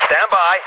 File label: Dispatch